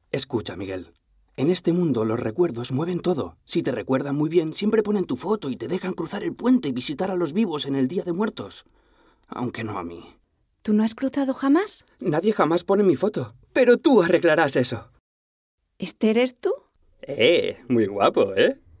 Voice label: Dubbing - Coco Scene; Doblaje Escena Coco Hector